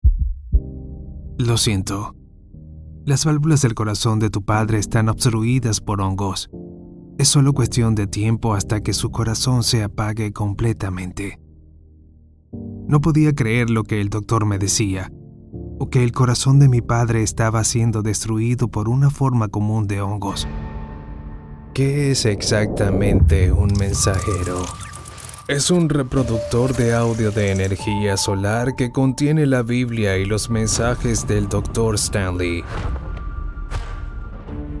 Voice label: languages men spanish